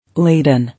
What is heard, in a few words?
"Laden", English